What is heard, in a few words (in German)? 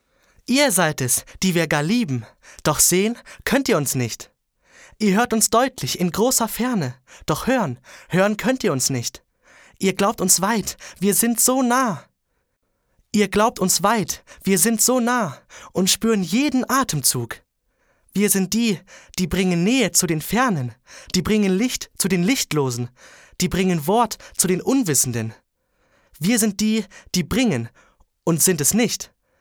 Fröhlich, Jungen